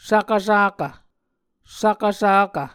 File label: "svkvsakv", Muscogee